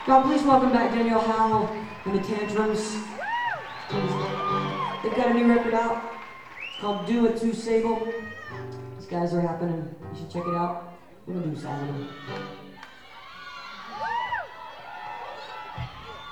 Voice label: talking with crowd

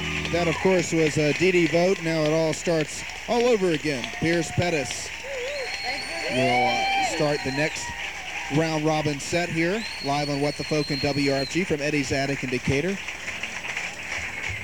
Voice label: radio announcer; songwriter showcase - eddie's attic - decatur

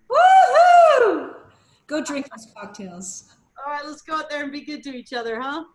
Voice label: conversation

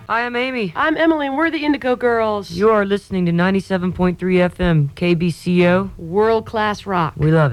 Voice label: promo

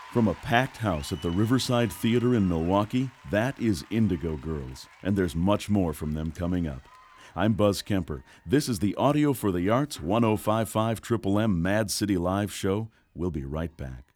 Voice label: announcer; riverside theatre; milwaukee, wisconsin

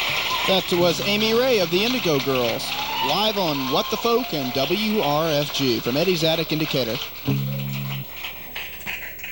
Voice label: radio announcer

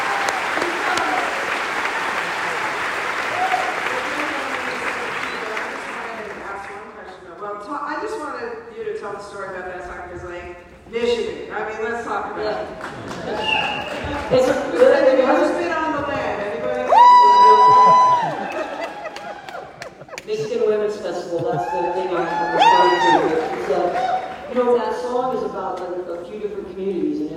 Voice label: conversation

